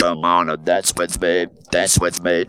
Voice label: "dance with me", Funky Vocals; USB Soundscan vol.18; AKAI

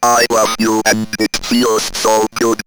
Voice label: Robot, robot vox; I Love You; X-Static Goldmine

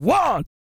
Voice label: COUNT, Vocal Planet, R&B & Dance, COUNTING; ILIO

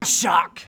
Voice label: SHOCK, SHOUTS; Best Service; Hyper Dance

